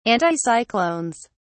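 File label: "anticyclones", British English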